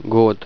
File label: "year", Russian